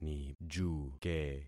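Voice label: "nijuke", concatenated syllables; Affixing experiment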